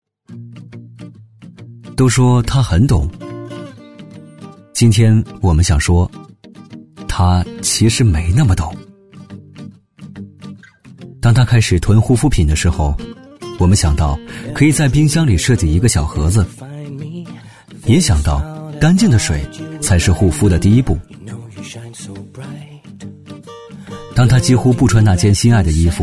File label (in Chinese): 男 自然讲述; 浑厚有力 轻松活泼; 卡萨帝 更懂T